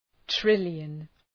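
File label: "trillion", English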